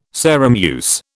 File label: "Sarum use", British